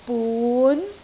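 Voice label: "bun2", Cantonese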